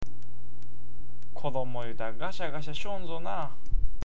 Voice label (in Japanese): "gasya", awaji ben